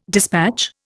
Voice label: "despatch", Indian